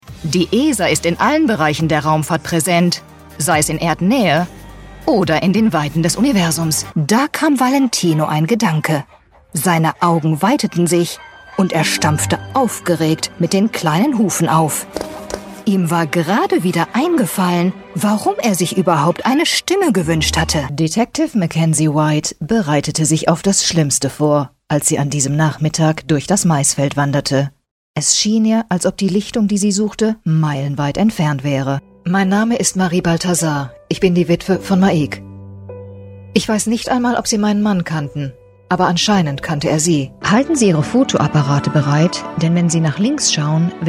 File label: german female german corporate